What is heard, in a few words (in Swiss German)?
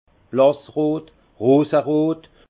"blassrot", alsace; vin; rose